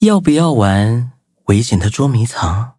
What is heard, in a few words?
touch, zh-hant; Cookie Run